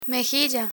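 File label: "mejilla", Spanish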